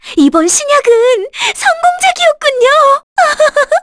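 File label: Vox Victory, Korean; Mediana; kingsraid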